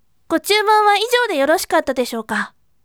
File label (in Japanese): voice "gochumon ijoude yoroshii"